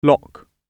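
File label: "lock", British English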